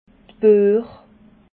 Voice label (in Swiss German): "buech", alsace